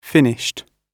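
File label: "finished", British English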